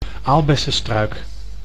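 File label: "aalbessenstruik", Dutch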